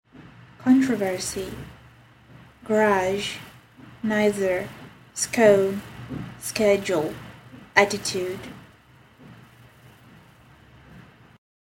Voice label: six words, female; brasilia brazil male

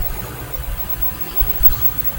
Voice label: female voice saying my name in bedroom

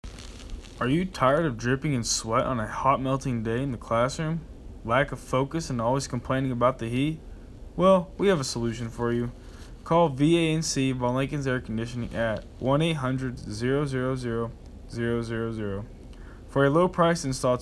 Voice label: radio commercial

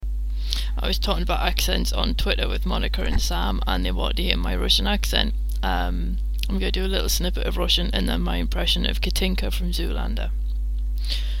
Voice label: accents

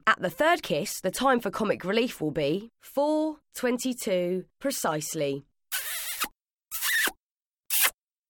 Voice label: speaking clock

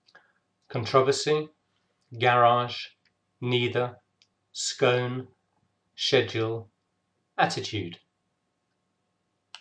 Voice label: Wilmslow UK male

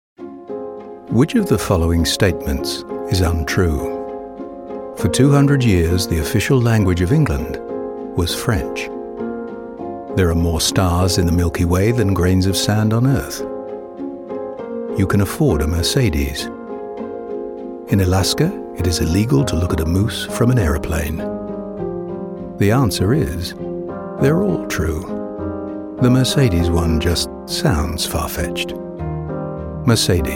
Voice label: advert; mercedes uk

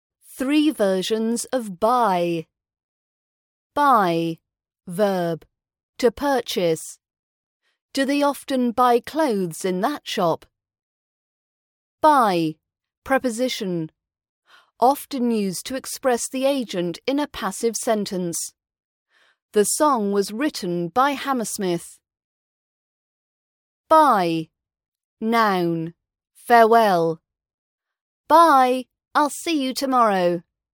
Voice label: british accent training buy by bye

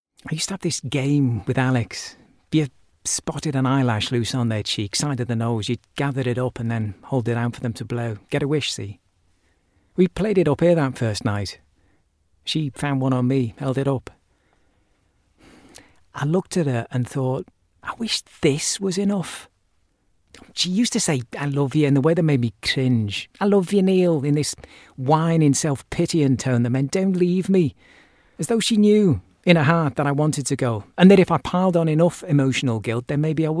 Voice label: drama dogs barking

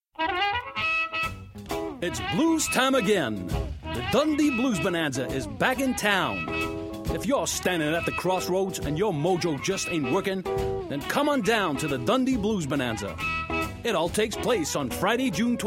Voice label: voiceover showreel

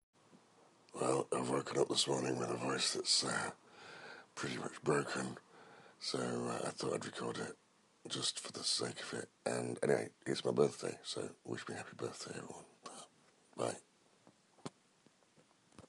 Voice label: dead voice manflu

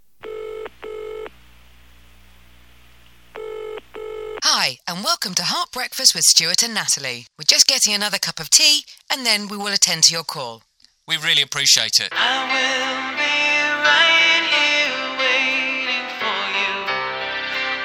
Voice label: onhold message with appropriate music; the heart breakfast

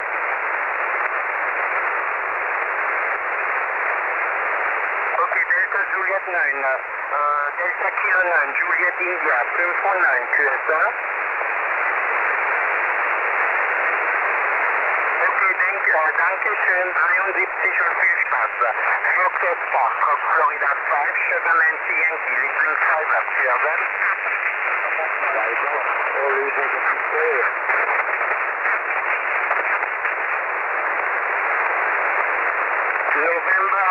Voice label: 14MHz SSB

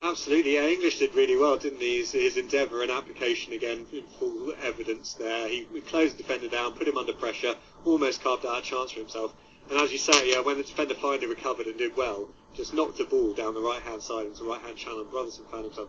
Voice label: live commentary; harrow borough v peacehaven

